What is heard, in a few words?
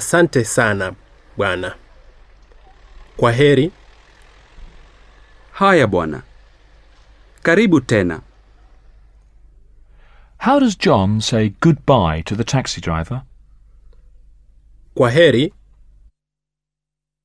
Going to the Post Office Dialog, Swahili; Teach yourself Swahili audio